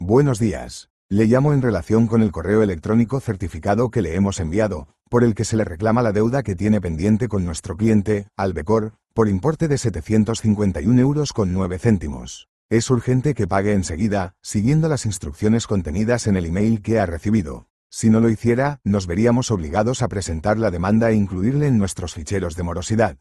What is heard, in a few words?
audio call